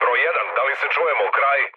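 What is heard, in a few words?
Serbian chat; War Thunder; speech srb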